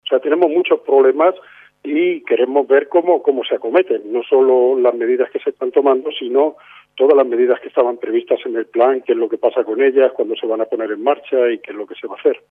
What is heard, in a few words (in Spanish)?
noticias